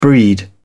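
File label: "breed", British English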